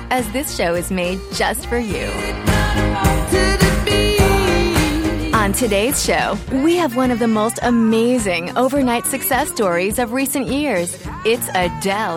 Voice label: english american tv shows